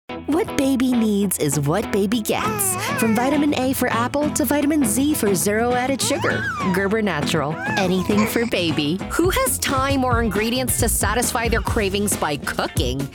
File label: Commercial, voiceover